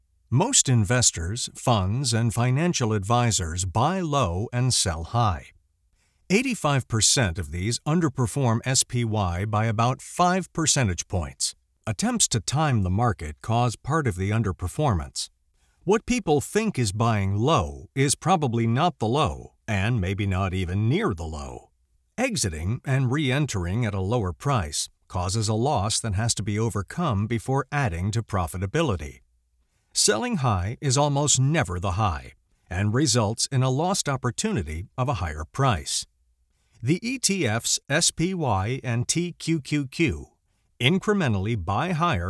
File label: Audiobook Non Fiction Business Corporate